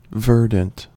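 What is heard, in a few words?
"verdant", American English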